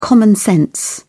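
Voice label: "common sense", British English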